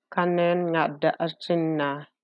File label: Ilocano